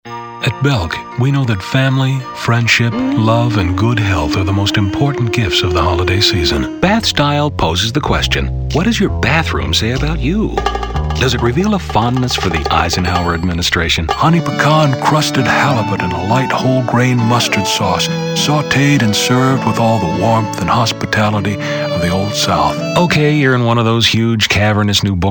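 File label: Commercial, real people male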